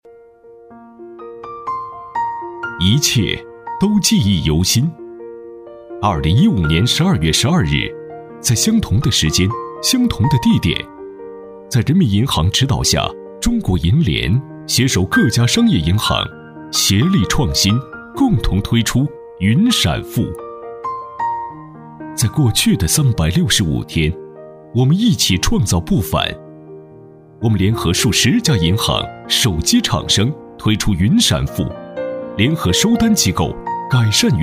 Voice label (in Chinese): 男 专题; 中国银联总部云闪付